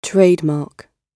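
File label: "trademark", British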